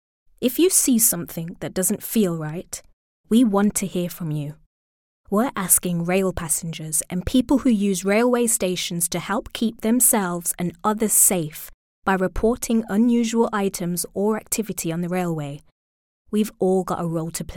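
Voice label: British English, Corporate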